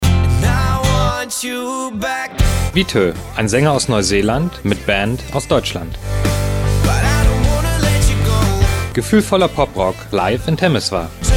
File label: Spot; VITO